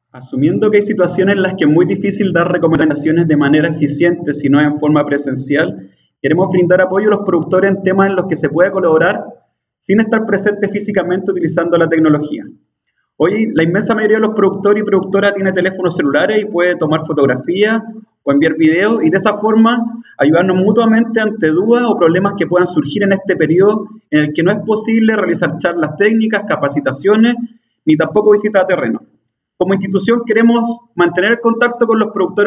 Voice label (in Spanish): Cuña